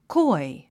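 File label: "coy", English; 発音 kɔ́i コォイ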